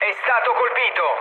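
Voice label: Italian aircraft destroyed mood low, speech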